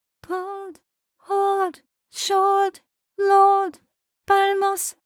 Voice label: uk english female